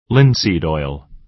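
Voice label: "linseed oil", American English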